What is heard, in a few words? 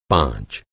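"panch", Hindi, male; five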